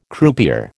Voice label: "croupier", American

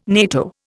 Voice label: "NATO", Indian

